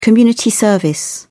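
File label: "community service", British English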